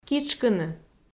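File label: "китшкыны", Komi, female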